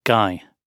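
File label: "guy", British English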